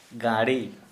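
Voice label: Santali male monkey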